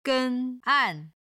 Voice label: "gen1an4", Chinese